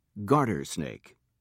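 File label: "garter snake", British English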